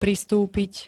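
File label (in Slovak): "pristúpiť", Slovak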